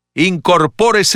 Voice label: Merge Left (Spanish)

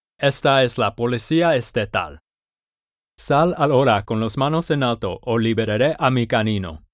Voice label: State Police Canine Release (Spanish); Pathfinder

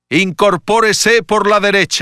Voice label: Merge Right (Spanish); Pathfinder

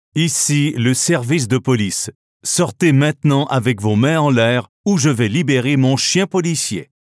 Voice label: Police Canine Release (French Canadian); Pathfinder

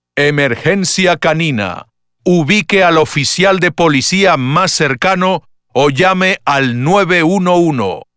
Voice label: Canine Emergency (Spanish); Pathfinder

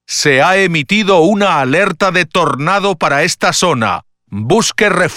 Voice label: Tornado Warning (Spanish); Pathfinder

